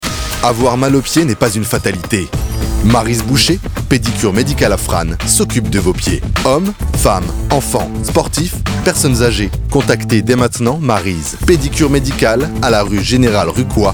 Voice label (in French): Voix Homme, voix off